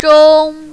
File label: "zhong1", mandarin, female